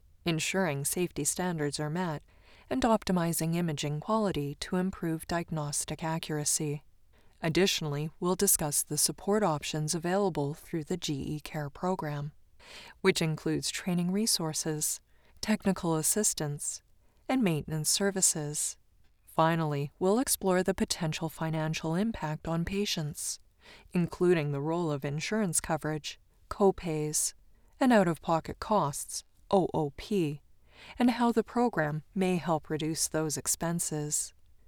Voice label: eLearning CORPORATE CT SCAN Module; GE; eLearning Sample